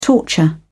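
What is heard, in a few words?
"torture", English, British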